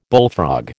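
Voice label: "bullfrog", American English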